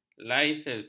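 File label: "laissez", Malay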